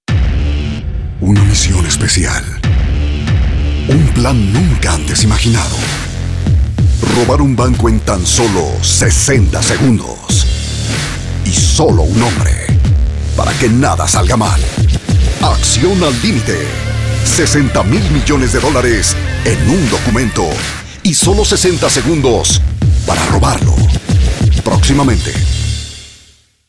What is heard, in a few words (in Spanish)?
locucion tono accion locutor con musica